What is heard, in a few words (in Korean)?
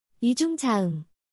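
"이중 자음", Korean, consonants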